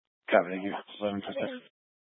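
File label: Sheriff Dispatch, scanner audio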